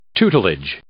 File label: "tutelage", English